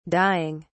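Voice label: "dieing", British English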